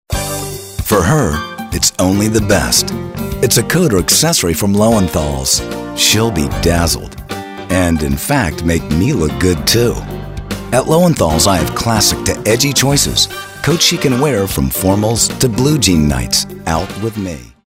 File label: romantic